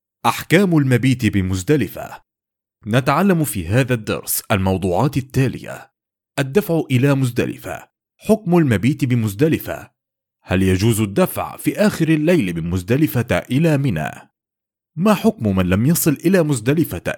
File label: Elearning Hajj